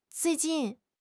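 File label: "zui4 jin4", Mandarin Chinese; HSK3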